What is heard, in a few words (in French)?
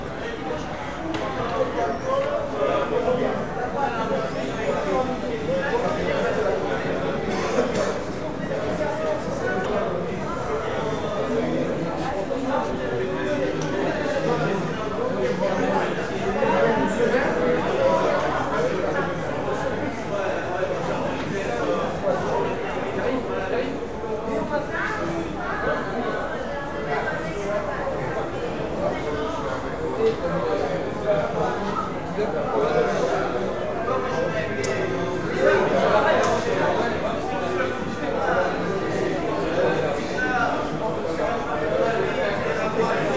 Vx Ext Rue Pigalle, French; City